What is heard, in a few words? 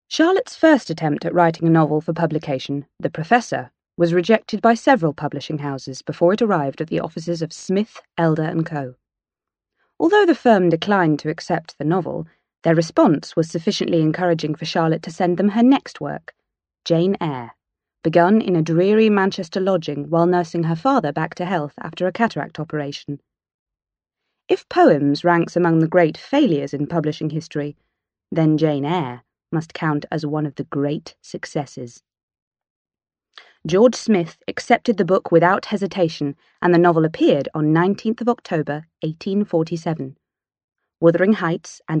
British F, British English